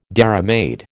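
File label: "dairymaid", American English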